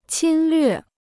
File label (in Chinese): "侵略", Chinese